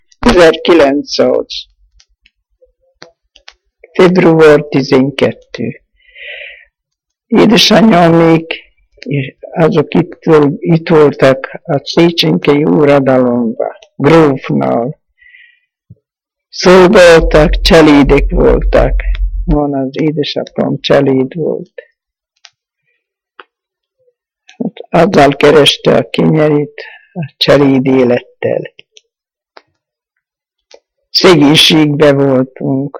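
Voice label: dialect collection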